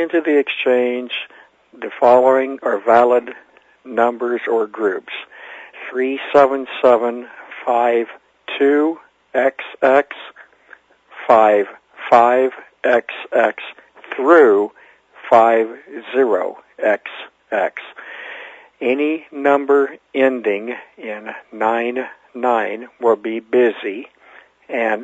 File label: Info Line, Western Electric Step by Step; sounds from preserved step by step switches; 377 Prefix; Phil McCarter